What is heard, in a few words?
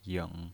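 "ying" Mandarin Chinese pinyin voice, third tone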